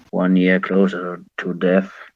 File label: merry christmas, bear; sven; santa bear